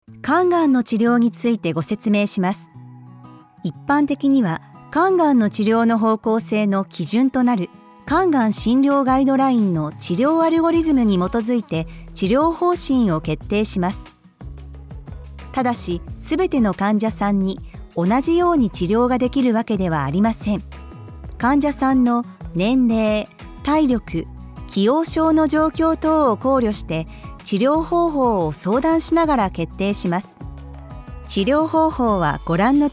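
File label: japanese medical narration, female Japanese; voice actor; Corporate Videos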